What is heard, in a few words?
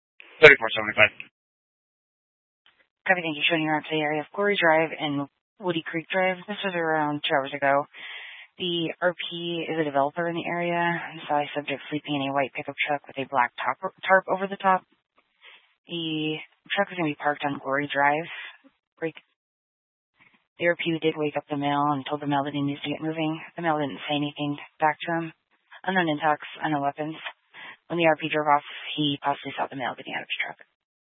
Police 1 Dispatch